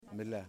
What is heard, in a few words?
"Moon", Yimas